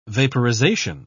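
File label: "vaporisation", British English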